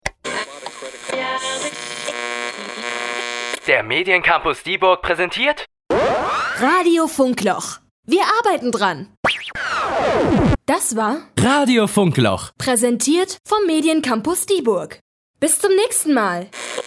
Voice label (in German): Station Voice Radio; Funkloch